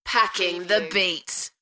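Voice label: British female Packing the beats; beanstalk audio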